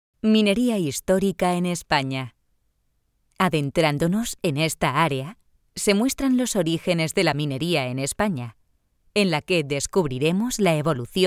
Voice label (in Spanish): audioguia; audioguia museo de la mineria; esp